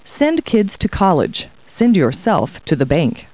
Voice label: people, kid